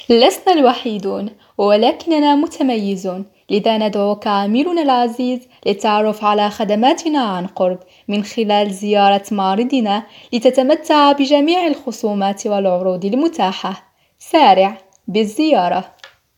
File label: Arabic, Female